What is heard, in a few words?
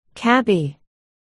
"cabby", articulation